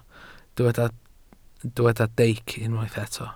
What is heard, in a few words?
"deic", welsh